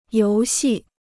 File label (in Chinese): "游戏", Chinese